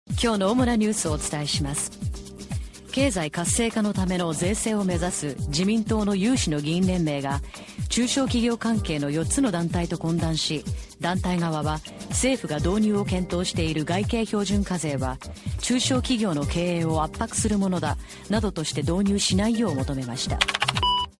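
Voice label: Japanese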